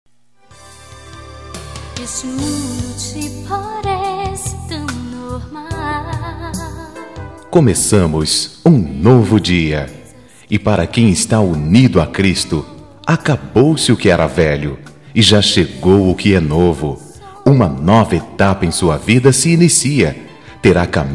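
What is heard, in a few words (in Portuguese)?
Evangélica Aniversário de Amizade, male, telemensagens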